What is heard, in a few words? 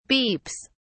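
"beeps", English, British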